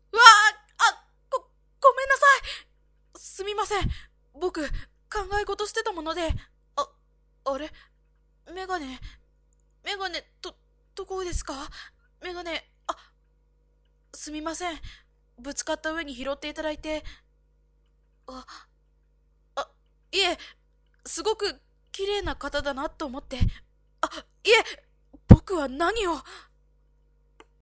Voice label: boy